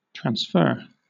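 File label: "transfer", British English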